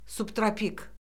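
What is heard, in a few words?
"subtropik", Azerbaijani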